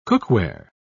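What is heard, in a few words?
"cookware", British English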